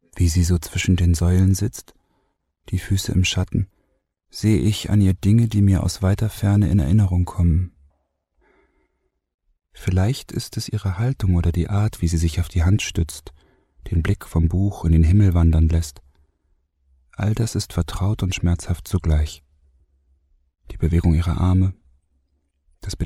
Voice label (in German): hoerbuch